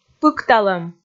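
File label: "пыкталӧм", Komi, female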